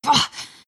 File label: pain; lina